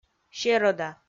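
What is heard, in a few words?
"серӧда", Komi, female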